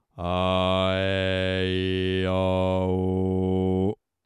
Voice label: aeiou lowpass